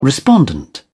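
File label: "respondent", British English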